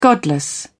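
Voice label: "godless", British English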